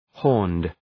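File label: "horned", English; {hɔ:rnd}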